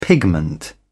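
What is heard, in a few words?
"pigment", British English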